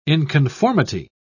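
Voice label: "inconformity", British English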